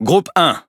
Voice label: Speech Pilots Torpedo ID, FR; World Of Warships